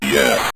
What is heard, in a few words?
"yes", synth voice; Kenney's Sound Pack